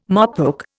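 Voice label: "mopoke", Indian English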